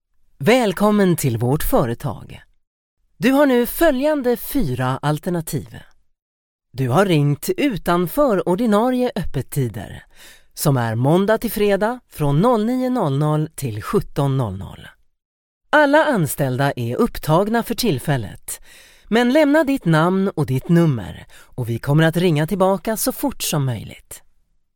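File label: Swedish IVR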